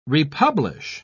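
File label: "republish", British English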